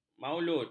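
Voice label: "maulud", Malay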